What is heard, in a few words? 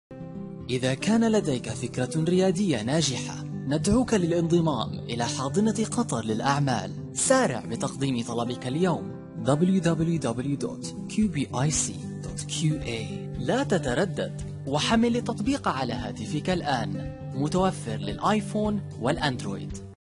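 Arabic, male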